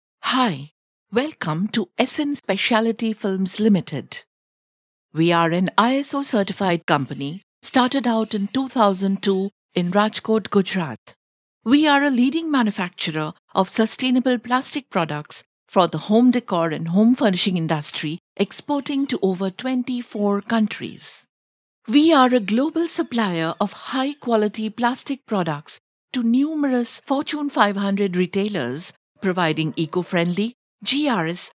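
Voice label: English indian female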